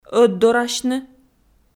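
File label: "ӧтдорасьны" Komi, female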